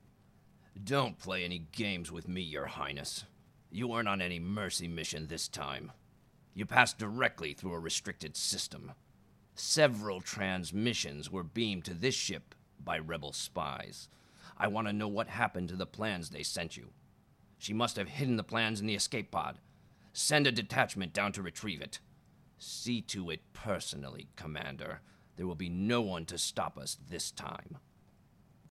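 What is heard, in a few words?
character villian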